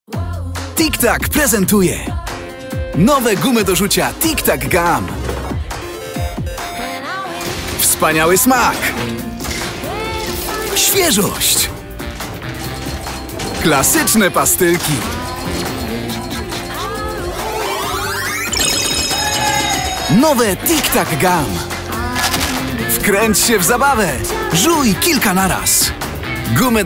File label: Commercial